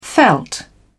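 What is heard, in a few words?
"veld", British English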